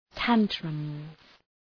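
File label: "tantrums", English; (Ουσιαστικό)● νευράκια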